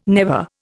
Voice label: "never", Indian

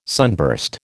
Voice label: "sunburst", American

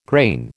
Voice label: "grain", American

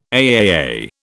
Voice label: "AAA", British

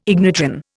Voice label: "ignitron", Indian

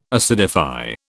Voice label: "acidify", British

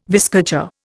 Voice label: "viscacha", Indian

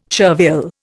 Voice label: "chervil", Indian

